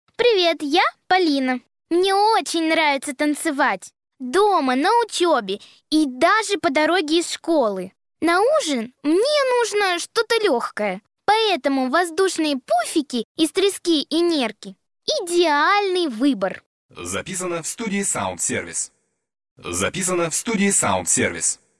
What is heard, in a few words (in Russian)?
reklama